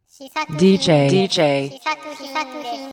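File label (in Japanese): DJの言い方（女性）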